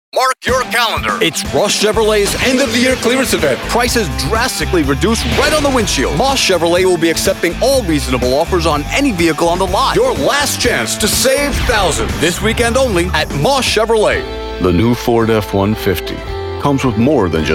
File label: Automotive, Professional Voiceover